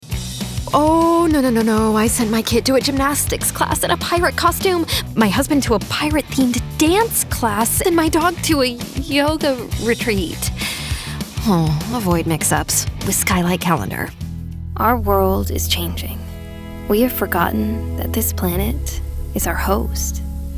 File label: commercial